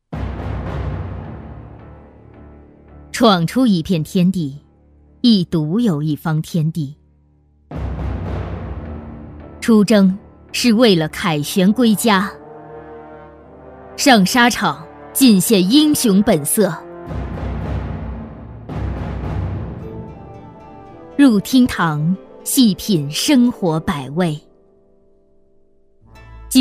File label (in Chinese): 广告 家居家电 大气; 红星美凯龙